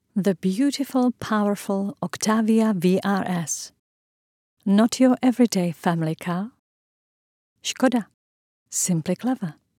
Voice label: English Commercial car; Skoda

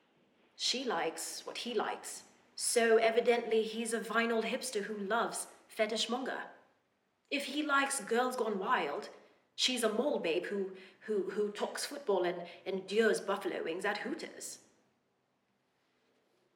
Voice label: British

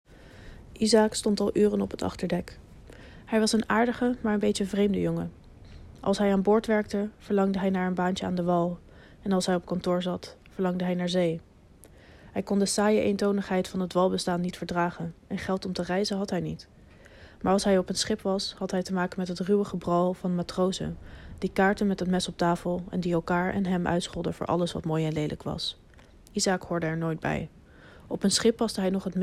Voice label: Dutch language

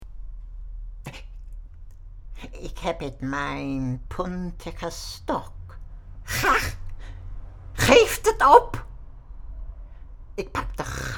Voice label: Goblin Dutch

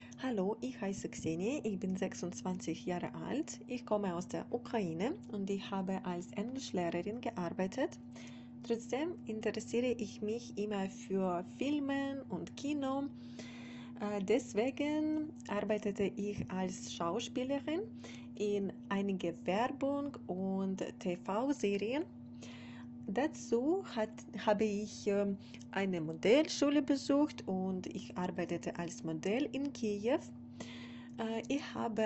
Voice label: German

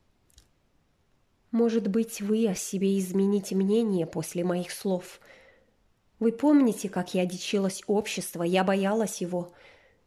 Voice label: RUSSIAN

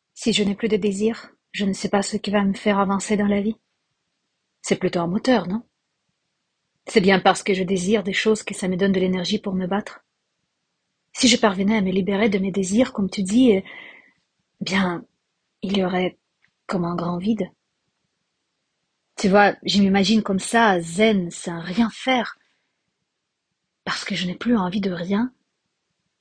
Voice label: francais

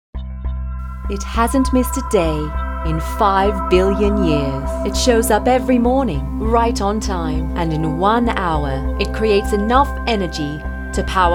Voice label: British English Ad

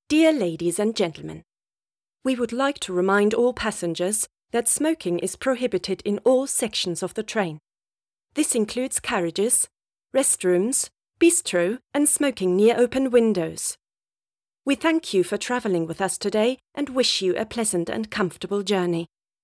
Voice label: English corporate

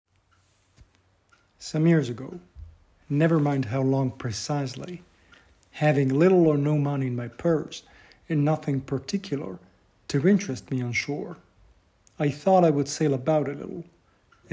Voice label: american

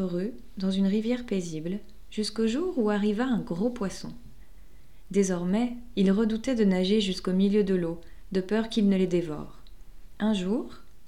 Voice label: virtual teacher for children audition

